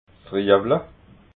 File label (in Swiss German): "riewla", alsace